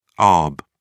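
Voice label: "arb", British English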